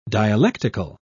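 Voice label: "dialectical", British English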